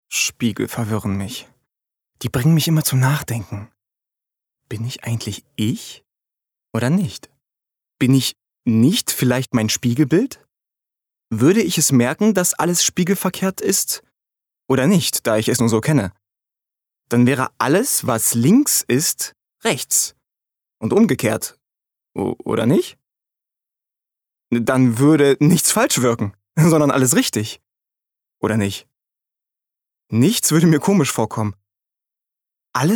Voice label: Innerer Monolog; LOFT VOICES

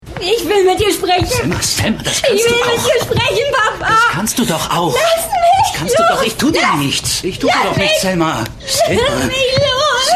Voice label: Synchron Drama

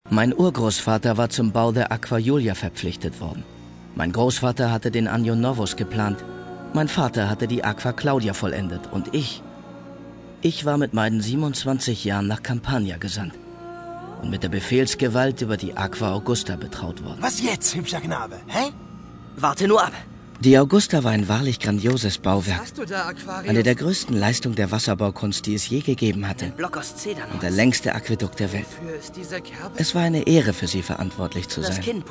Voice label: hoerbuch

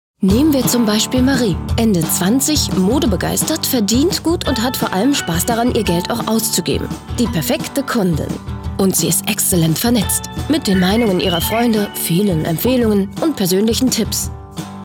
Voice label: Imagefilm; LOFT VOICES

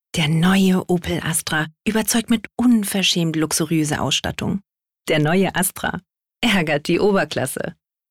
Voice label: DE; Opel Astra

